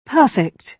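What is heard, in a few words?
"perfect", British English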